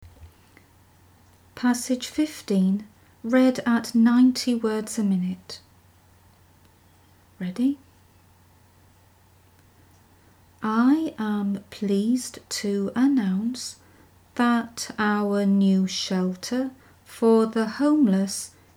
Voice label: 90wpm; Teeline